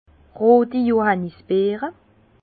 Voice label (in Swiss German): "roti", Bas Rhin; vin; groseilles rouges